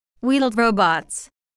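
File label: "wheeled robots", English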